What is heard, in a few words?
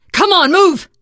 emphatic go, teengirl; voice; source engine; left 4 dead 2; survivor